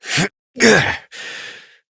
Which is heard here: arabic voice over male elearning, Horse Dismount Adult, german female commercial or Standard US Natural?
Horse Dismount Adult